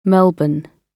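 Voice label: "melbourne", British English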